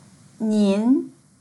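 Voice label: "nin2", Mandarin Chinese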